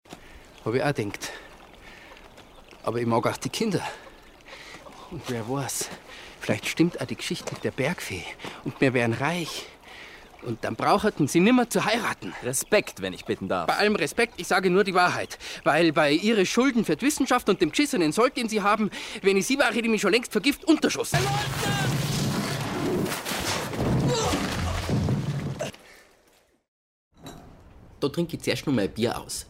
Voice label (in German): bayrisch; gipfelsturm